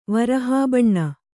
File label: "ವರಹಾಬಣ್ಣ", Kannada